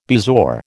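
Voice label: "bezoar", American English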